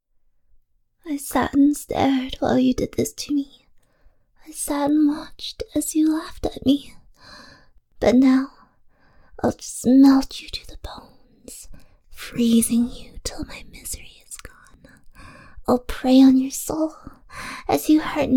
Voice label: spooky, creepy, poem, whispers, little girl, revenge, stereo, binaural; Cold as Ice